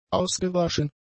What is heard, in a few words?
"ausgewaschen", German; adjectives